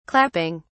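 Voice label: "clapping", British English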